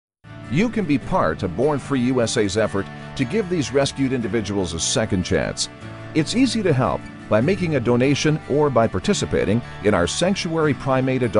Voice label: english canadian audiobooks, canadian english voice actors